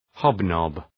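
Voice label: "hobnob", English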